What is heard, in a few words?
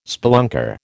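"spelunker", American English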